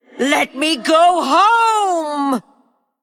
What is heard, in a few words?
Female Human Ghost Attack